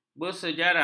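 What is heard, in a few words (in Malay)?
"bersejarah", Malay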